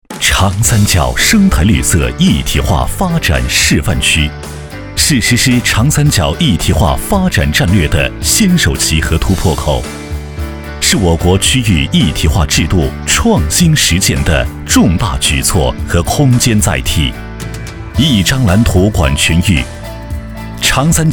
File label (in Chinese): 男 专题; 示范区